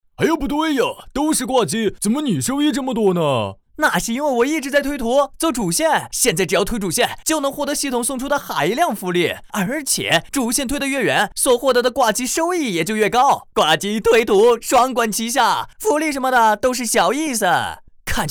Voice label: 双角色精分; 表情包教学

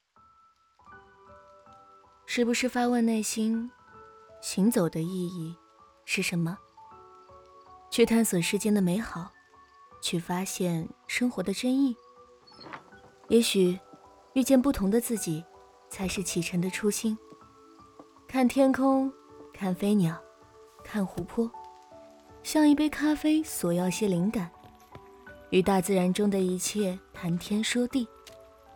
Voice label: 抒情 温柔 女声 电台 混音

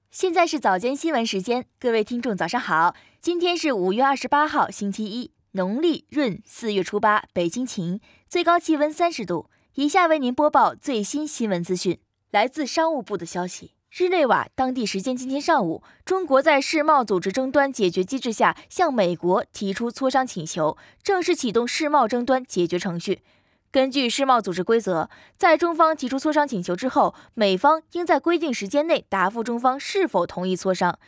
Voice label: 早间新闻类

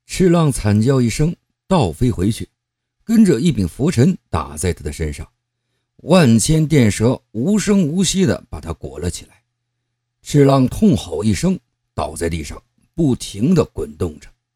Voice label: 旁白; 非凡

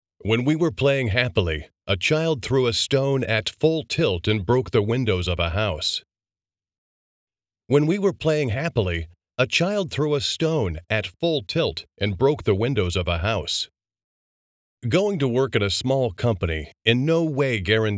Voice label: 外籍英语录音材料听力男声部分美式口音

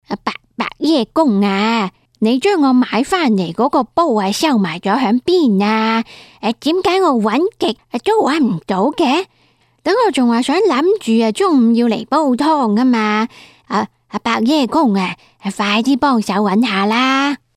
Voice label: 老人 配音

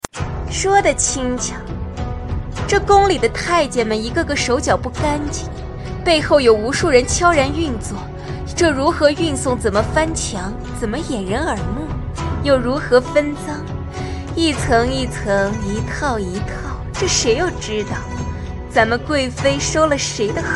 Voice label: 配音角色贵妃

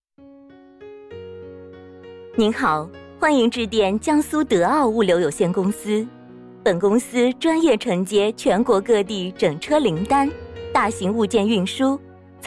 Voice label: 企业彩铃样音

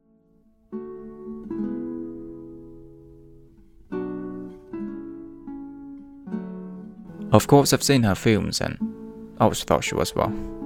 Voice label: 电影独白; 诺丁山

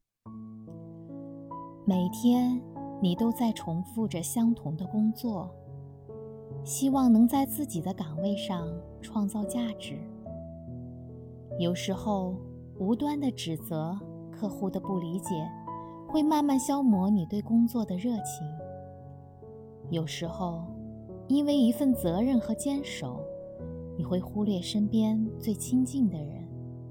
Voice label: 旁白温情暖心

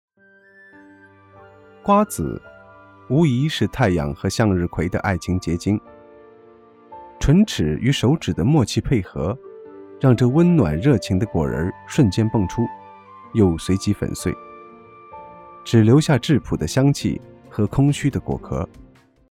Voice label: 舌尖体; 舌尖上的中国

